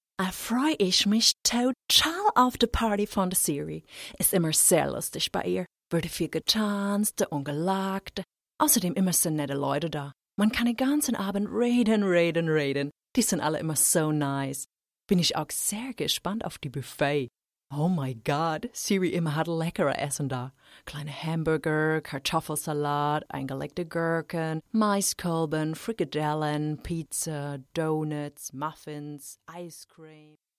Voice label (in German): Akzent Amerikanisch